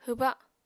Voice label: "hwba", Apatani